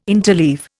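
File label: "interleave", Indian English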